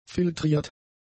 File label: "filtriert", German; adjectives